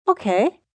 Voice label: "Okay", female; Response; Talking